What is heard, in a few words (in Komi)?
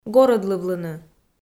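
"горӧдлывлыны", Komi, female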